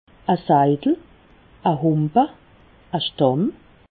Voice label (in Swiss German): "seidel", alsace